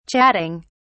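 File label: "chatting", British English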